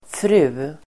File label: "fru", Swedish